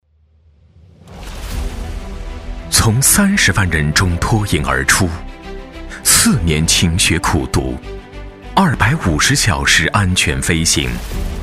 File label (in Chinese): 大气; 民航人